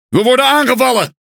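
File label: NL Speech Pilots Bomber Under Attack, Dutch; World Of Warships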